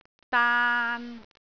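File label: "daan3", Cantonese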